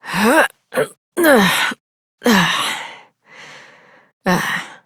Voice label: Horse Mount Adult, Dialog; Duviri